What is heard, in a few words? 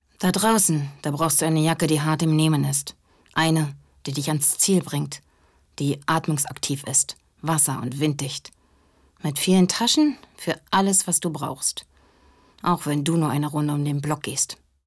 Commercial; taff